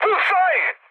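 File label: Serbian attack position mood high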